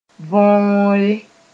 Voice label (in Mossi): "võore", moore